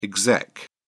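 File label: "exec", British English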